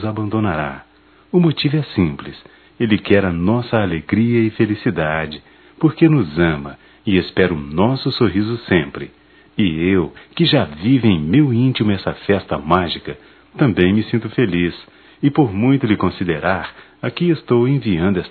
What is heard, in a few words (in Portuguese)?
Natal neutro; masc; Telemensagens 2017; Natal & Ano Novo